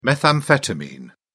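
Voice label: "methamphetamine", English, British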